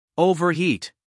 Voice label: "overheat", US English, male; everyone-can-use-english